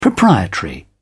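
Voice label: "proprietary", British English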